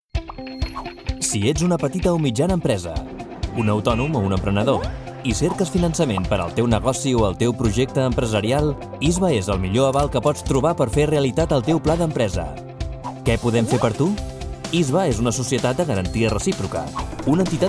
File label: male catalan comercial; ideasonora